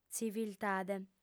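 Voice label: "tziviltade", female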